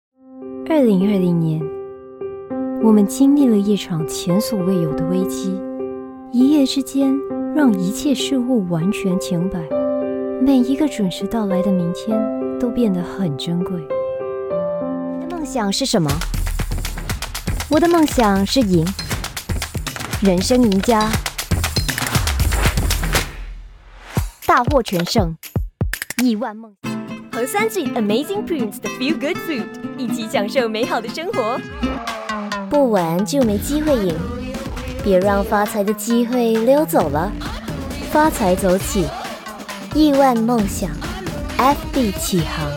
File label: chinese mandarin commercial